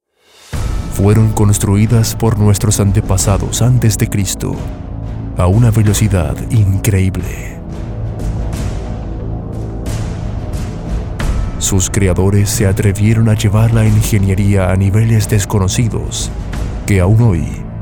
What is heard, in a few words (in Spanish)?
DOCUMENTALES